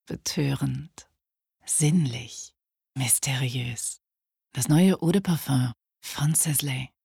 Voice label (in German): Werbung; Sisley